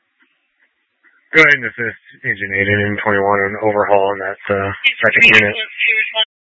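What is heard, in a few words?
Incident Tac 1, Scanner audio recording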